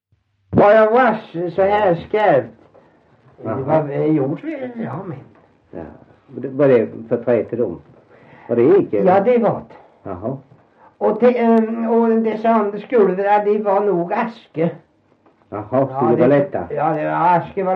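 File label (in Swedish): Värö Halland, dialekter; Folkminnen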